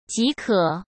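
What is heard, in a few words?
"即可", Mandarin Chinese; hsk; chinese-flashcards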